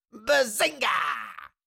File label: Cartoon little monster voice; bazinga